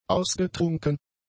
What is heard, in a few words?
"ausgetrunken", German; adjectives